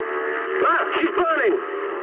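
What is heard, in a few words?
English on fire mood med; War Thunder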